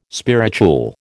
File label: "spirituel", American